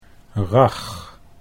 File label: "rag", Dutch